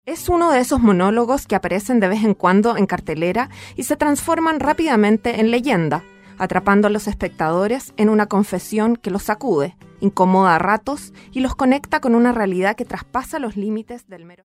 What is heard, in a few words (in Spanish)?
Monologo